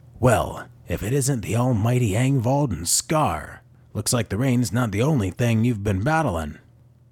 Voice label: Leader of a good aligned local gang with charisma, strength, and moral conviction, Audiobook NPC; Jask